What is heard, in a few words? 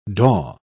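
"daw", English, British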